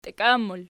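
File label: "tiakian" plural, Archi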